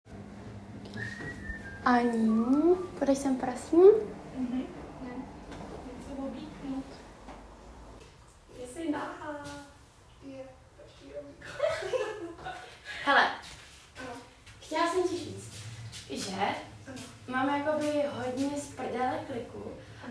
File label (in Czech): reportazni situace